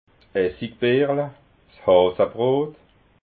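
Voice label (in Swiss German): "essigbeerla", Alsatian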